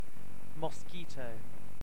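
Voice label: "mosquito", British English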